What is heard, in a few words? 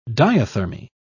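"diathermy", British English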